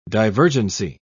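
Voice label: "divergency", British English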